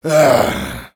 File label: negative vocalization, fr; Demoman